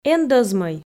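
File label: "эн дӧзмӧй", Komi, female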